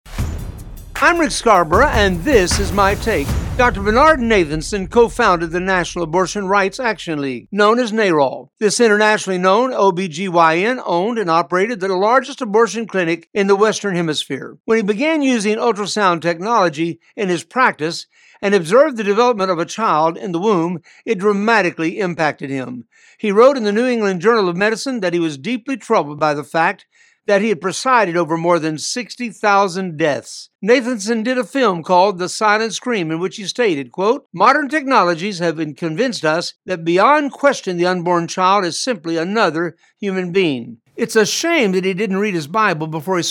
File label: Radio Spot; Bernard Nathanson